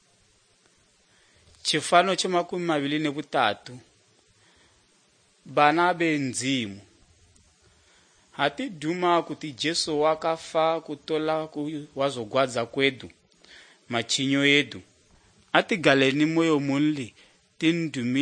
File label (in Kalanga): good news kalanga lilima, drama